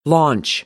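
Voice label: "launch", American English; AmericanBritish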